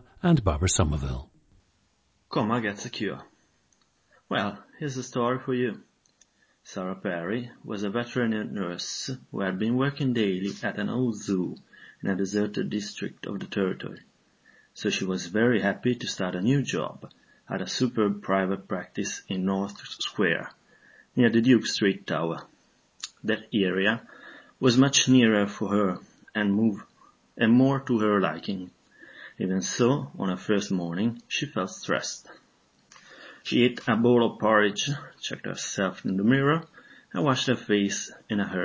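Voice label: italy, International Dialects of English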